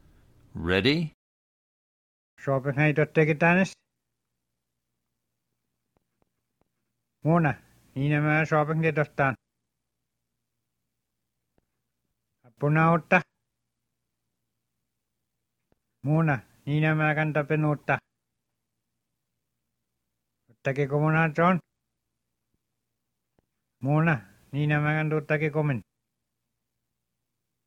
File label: Spoken Cree Pronunciation Drill; Spoken Cree Level 1 Unit 3 Section C